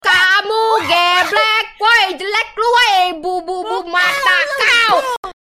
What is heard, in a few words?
cursing